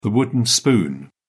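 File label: "wooden spoon", British English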